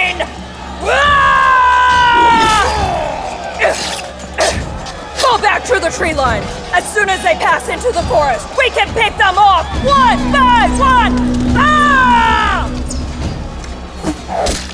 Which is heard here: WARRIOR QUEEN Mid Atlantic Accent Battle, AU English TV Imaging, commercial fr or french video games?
WARRIOR QUEEN Mid Atlantic Accent Battle